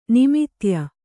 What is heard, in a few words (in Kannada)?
"ನಿಮಿತ್ಯ", kannada